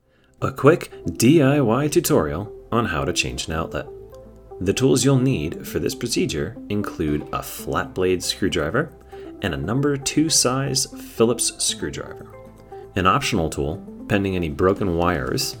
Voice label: step by step instructions